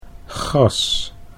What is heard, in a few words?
"gas", Dutch